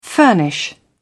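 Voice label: "furnish", British English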